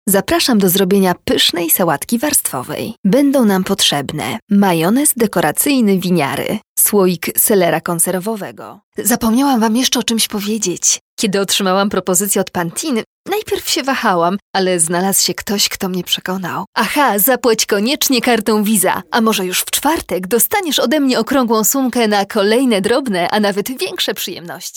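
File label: Polish commercial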